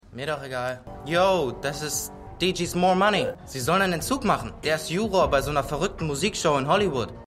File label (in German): Teenager